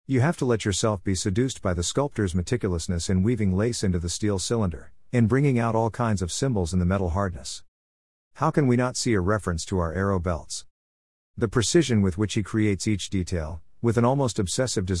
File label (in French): anglais